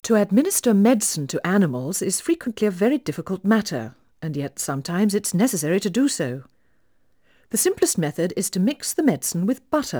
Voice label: Female speech English Mono output noised, 3bit